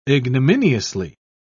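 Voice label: "ignominiously", English, British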